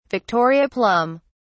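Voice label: "victoria plum", British English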